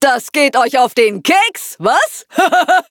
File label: battle de; cookie run